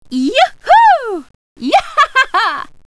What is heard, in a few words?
woman dance